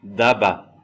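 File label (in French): "daba", French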